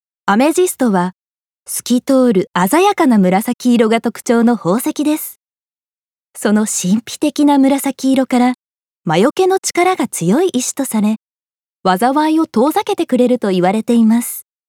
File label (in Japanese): 解説ナレ